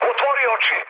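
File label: Serbian player friendly fire mood low; War Thunder; speech srb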